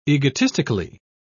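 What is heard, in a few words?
"egotistically", British English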